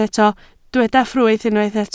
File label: "ffrwyth", Welsh